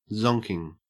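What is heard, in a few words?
"zonking", Australian English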